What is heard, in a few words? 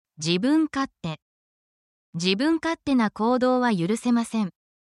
negative japanese